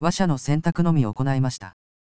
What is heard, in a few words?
man; recaius